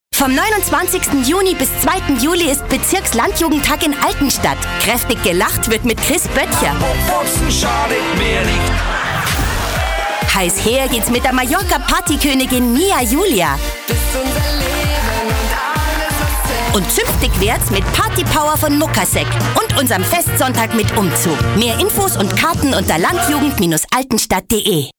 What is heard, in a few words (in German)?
Dialekt Bayerisch, voices